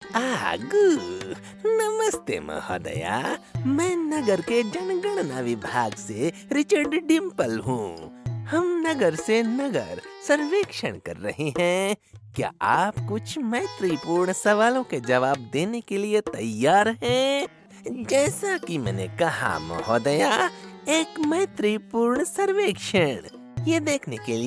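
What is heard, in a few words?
Hindi, male, characters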